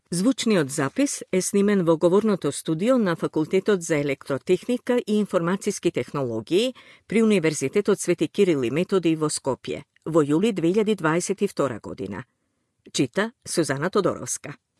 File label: credits audiobook